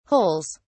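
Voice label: "holes", British English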